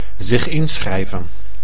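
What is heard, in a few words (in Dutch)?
"zich inschrijven", Dutch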